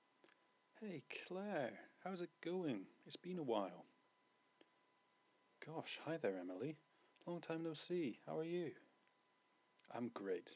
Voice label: Casual chat; casual greetings